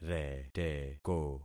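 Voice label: "reteko", concatenated syllables; Affixing experiment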